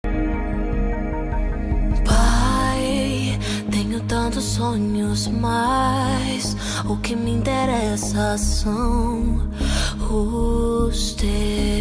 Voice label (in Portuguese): pai masc gospel, Voz Masculino; Aniversário de Pai Gospel; Telemensagens para todas as ocasiões